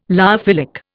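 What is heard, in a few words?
"lyophilic", Indian English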